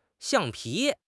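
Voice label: "xiang4 pi2", Mandarin Chinese; HSK4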